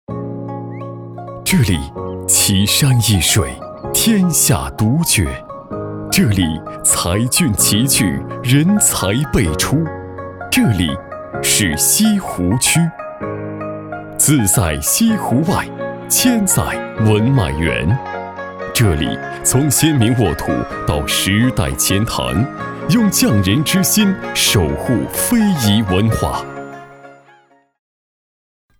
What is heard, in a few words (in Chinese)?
男-电台广告; 自在西湖外